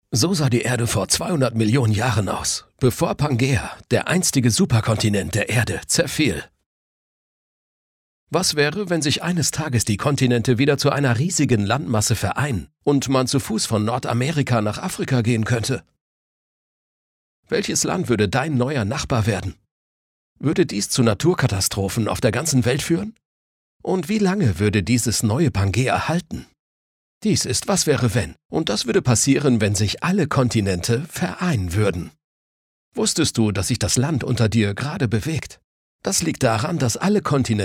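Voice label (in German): Doku Kommentar erklärend; Was Wäre Wenn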